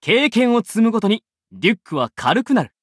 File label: levelup ja; cookierun